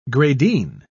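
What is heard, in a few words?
"gradine", English, British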